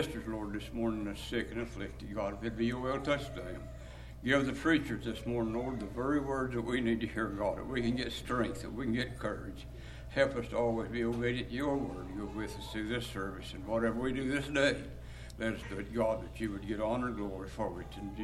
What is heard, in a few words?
Broadcast Prayer